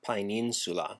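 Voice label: "paeninsula", classical Latin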